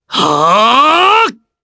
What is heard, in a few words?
Vox Casting, jp; Clause; kingsraid